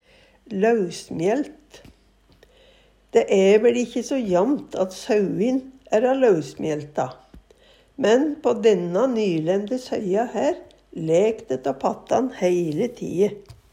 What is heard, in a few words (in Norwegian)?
lausmjaelt, Numedalsmål